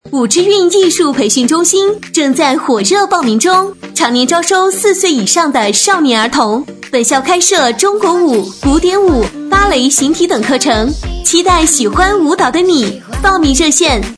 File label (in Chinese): 女声; 舞蹈培训中心招生